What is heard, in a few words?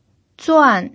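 "zuan4", Mandarin Chinese pinyin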